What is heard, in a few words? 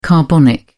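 "carbonic", British English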